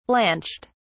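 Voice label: "blanched", British English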